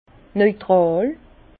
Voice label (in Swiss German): "neutral", Bas Rhin; vin; neutre